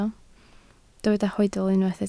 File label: "hoedl", Welsh